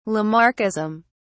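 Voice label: "lamarckism", British English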